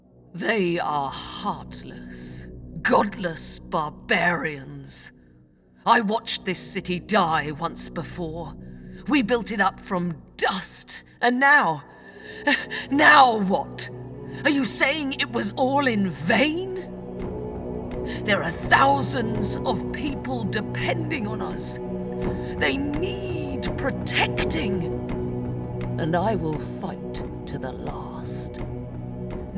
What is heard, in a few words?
Pain Video Game